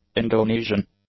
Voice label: "Indonesian", english; adjectives

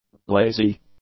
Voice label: "lazy", english; adjectives

